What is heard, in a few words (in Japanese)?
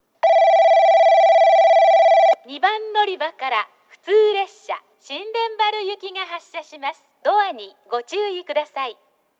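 2番のりば発車放送, 女声; 行橋; 普通 新田原行き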